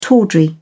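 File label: "tawdry", British English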